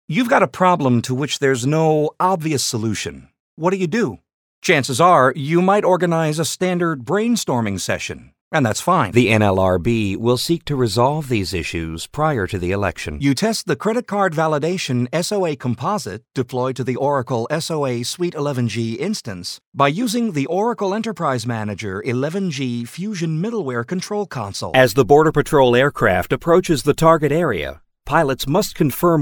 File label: e-Learning, commercial voiceover